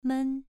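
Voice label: "men", tone 2, pronunciation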